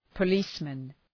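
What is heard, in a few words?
"policeman", English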